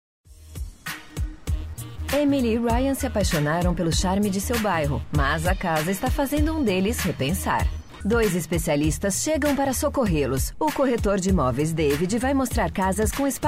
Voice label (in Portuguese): NARRAÇÃO